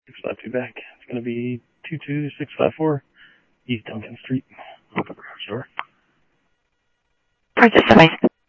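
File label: Sheriff East County, scanner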